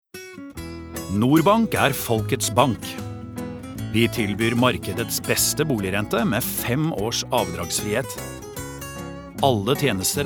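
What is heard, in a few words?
Norwegian commercial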